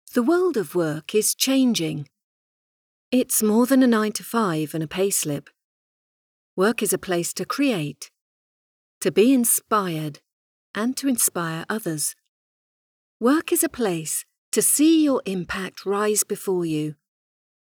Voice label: Studio Sound